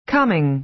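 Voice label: "coming", American English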